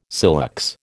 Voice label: "silex", American

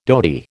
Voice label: "dhoti", American

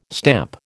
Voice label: "stamp", American

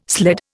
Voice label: "sled", Indian

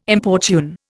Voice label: "importune", Indian

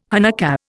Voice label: "Hanukkah", Indian

